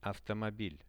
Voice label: "avto", Russian; автомобиль; transport; Что это? Это автомобиль.; visual-dictionary

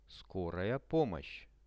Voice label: skoraja pomosh, Russian; transport; RUSSIAN VISUAL DICTIONARY

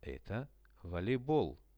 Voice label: eto voleybol, RUSSIAN; Это волейбол; sport; RUSSIAN VISUAL DICTIONARY

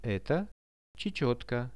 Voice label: "eto chechetka" Russian; Это чечётка; birds; Какая это птица?

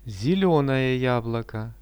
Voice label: "zelionoe jabloko", Russian; зелёное яблоко

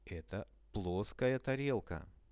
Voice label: "eto ploskaja tarelka", Russian; posuda

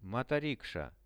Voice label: "motoriksha", Russian; моторикша; transport; Что это? Это моторикша.; visual-dictionary

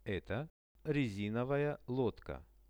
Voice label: "eto rezin lodka", Russian; Это резиновая лодка.; transport; RUSSIAN VISUAL DICTIONARY

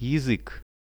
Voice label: "jazyk", Russian; язык; Это язык.; visual dictionary

